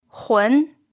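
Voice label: "hun", second tone, Mandarin Chinese pinyin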